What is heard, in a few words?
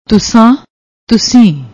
"tussan", Punjabi; ਤੁਸੀਂ